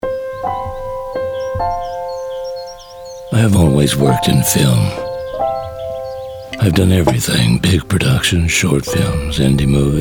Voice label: ADVERTISING, ENGLISH UK MALE